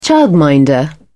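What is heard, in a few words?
"childminder", British English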